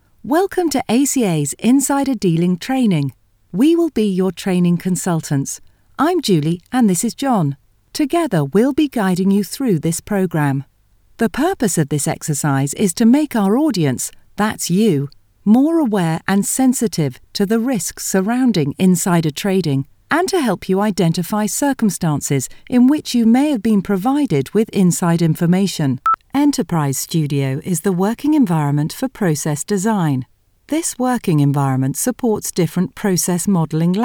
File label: E Learning Examples